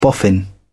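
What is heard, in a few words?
"boffin", British English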